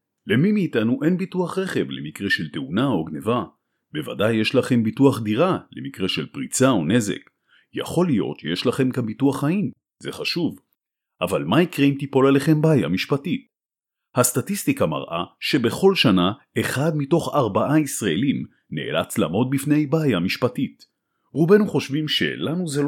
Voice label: Male, Hebrew